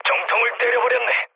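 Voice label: Korean bomb success mood low, speech; War Thunder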